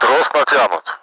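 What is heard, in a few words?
rope was taut, Russian; Mi-8MT; DCS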